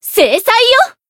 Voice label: Voice attack2 jp; sherinu

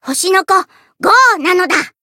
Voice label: encount, jp

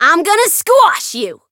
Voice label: chant; may; variable chant

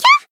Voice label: damaged, jp; esta

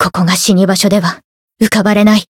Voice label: Voice variable chant jp

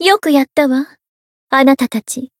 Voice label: growth, jp; toova

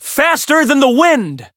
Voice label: Voice variable chant

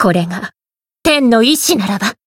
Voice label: Voice encount jp

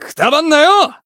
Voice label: heal, jp

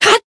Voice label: Voice attack jp

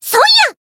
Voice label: Voice attack jp

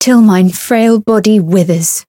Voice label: variable chant; eva

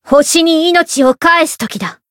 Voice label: variable chant jp; eva

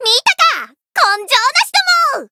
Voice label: win, jp; may

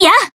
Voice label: Voice attack jp; isuka

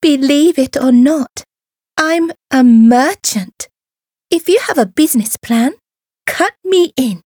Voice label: story; hoozuki

